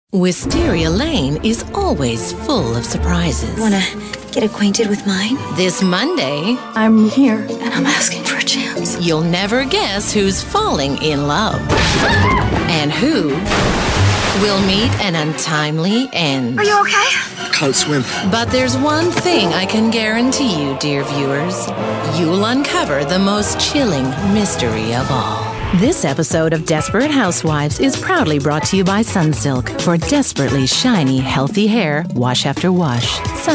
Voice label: promos; EM Voices